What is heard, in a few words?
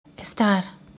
"estar", Spanish; how to learn spanish